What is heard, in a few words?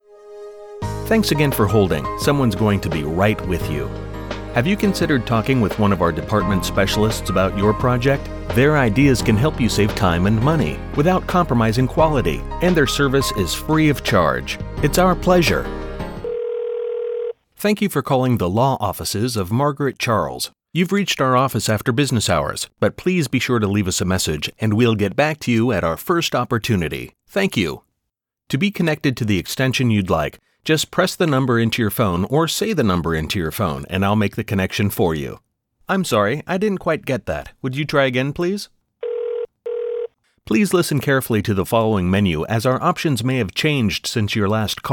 Telephony